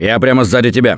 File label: Lead On, russian; Gambler